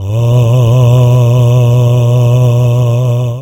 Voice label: norm a breathy